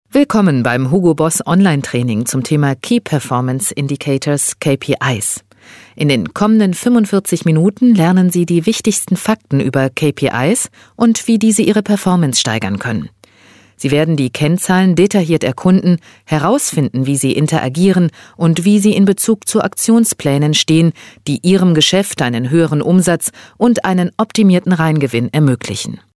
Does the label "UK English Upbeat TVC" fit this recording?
No